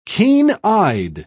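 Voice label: "keen-eyed", British English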